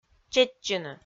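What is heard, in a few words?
"чеччыны", Komi-Zyrian, female; kpv audio dict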